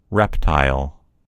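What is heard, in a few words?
"reptile", American English; gcompris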